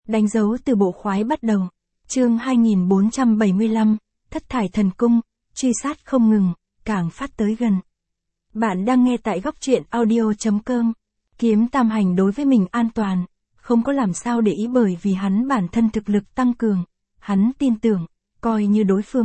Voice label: vi-VN Standard; danh dau tu bo khoai bat dau